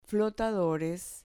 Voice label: "flotadores", Spanish